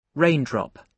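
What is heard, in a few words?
"rain drop", British English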